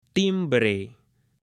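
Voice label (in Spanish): "timbre", Spanish, male; OBJETOS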